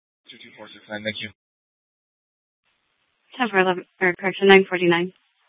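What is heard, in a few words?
Mesa Police Department Superstition Dispatch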